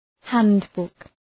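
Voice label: "handbook", English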